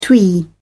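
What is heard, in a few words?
"twee", English, British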